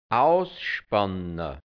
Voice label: "ausspanna", hianzisch, Dialekt, Burgenland; ausspannen (Vieh)

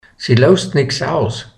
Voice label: "dea oldi Geizkrogn loußt nix aus", hianzisch Mundart Burgenland

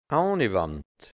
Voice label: "Auniwandt", hianzisch Mundart, Burgenland

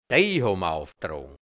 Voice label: "de(i) hobm auftrogn", hianzisch Mundart, Burgenland

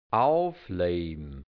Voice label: "aufle(i)bm", hianzisch; aufleben

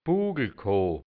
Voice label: "Buglkoo", hianzisch Dialekt Burgenland; Buckelkorb

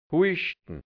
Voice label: "huischtn", Hianzisch, Burgenland; husten

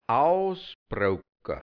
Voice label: "ausbroucka", hianzisch Mundart, Burgenland; ausbrocken; ausbrechen (unfruchtbare Triebe)

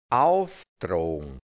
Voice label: "auftrogn", hianzisch Mundart, Burgenland; auftragen; reichlich servieren

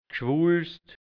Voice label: "Gschwulst", Hianzisch, Mundart, Burgenland; Geschwulst

